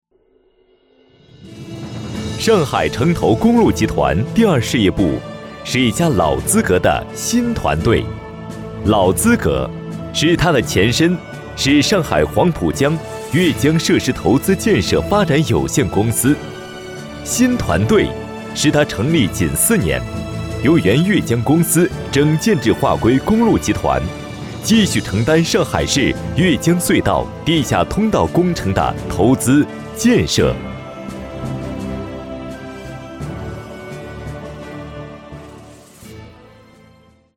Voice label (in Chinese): 专题 企业 浑厚, 男; 上海城投公路集团